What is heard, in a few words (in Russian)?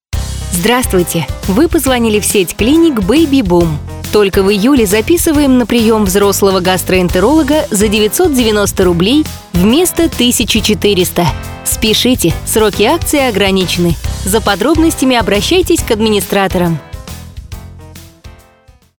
автоответчик; Бейбибум